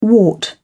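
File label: "wart", British English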